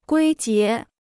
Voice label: "归结", Chinese

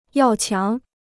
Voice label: "要强", Chinese

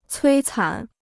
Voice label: "摧残", Chinese

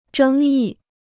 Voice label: "争议", Chinese

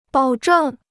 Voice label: "保证", Chinese

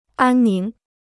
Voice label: "安宁", Chinese

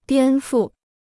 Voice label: "颠覆", Chinese

